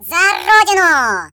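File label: Kamikaze, Russian; hedgewars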